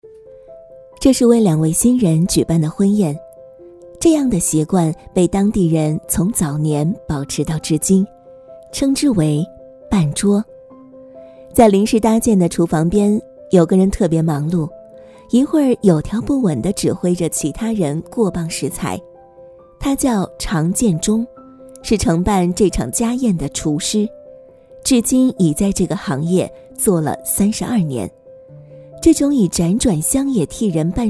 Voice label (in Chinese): 旁白 女; 乡村厨师 Y